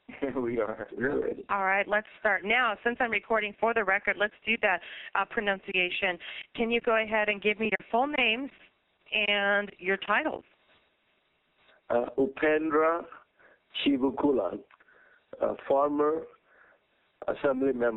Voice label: Radio; Upendra Chivukula; KCHE; Sioux City, IA